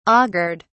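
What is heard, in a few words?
"augured", British English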